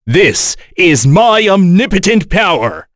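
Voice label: Vox Skill, voices heroes English; Kain; kingsraid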